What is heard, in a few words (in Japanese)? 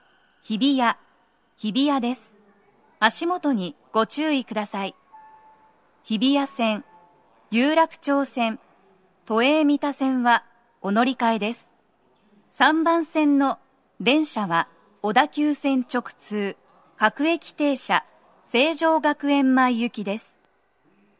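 到着放送 女声, 各駅停車 成城学園前行; 放送は「各駅停車 成城学園前行」です。; tokyometro chiyodaline hibiya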